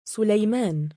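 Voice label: "sulaymaan", Arabic, male; given name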